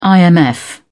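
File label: "imf", British English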